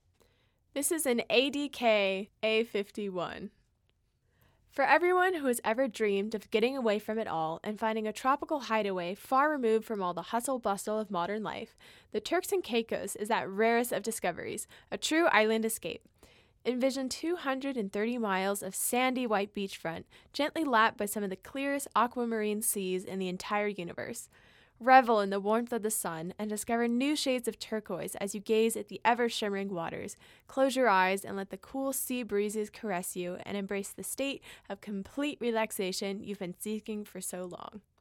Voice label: ADK A51, Voiceover